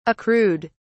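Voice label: "accrued", British English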